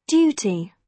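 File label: "duty", British English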